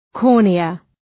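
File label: "cornea", English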